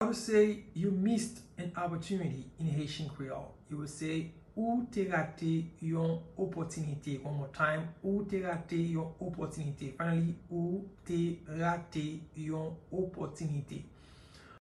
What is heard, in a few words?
You missed an opportunity in Haitian Creole Ou te rate yon opotinite pronunciation